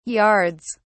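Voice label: "yards", British English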